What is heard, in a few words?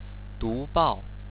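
"dubao", Chinese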